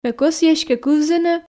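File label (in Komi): ме кӧсйи эськӧ кывзыны, Komi, female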